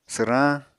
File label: "swrañ", Apatani